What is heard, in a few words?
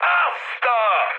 trick, mood high, speech hun; War Thunder